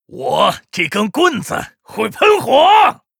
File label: Rifleman pissed, 矮人火枪手; 矮人火枪手（魔兽争霸3）